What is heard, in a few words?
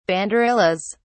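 "banderillas", British English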